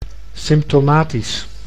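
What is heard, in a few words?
"symptomatisch", Dutch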